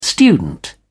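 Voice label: "student", British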